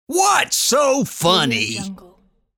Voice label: cartoon voice whats so funny; applehillstudios

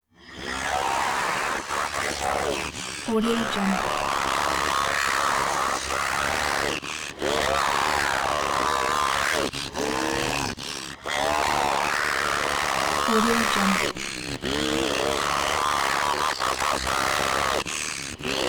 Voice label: robot scream processed continuous yelling; rizzy; prosoundfx

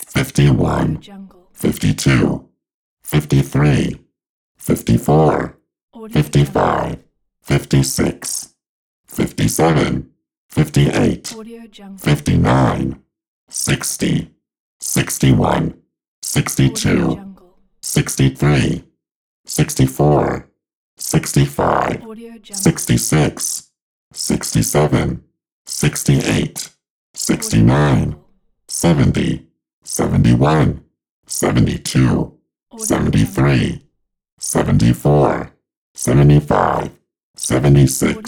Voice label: male counting from 51 to 100

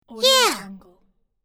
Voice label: cartoon voice "yeah"

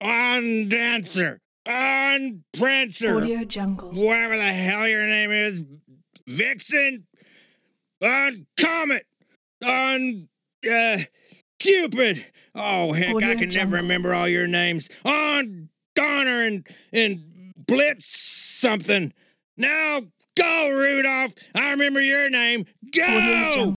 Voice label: christmas drunk santa calling raindeer; dasher dancer comet vixen

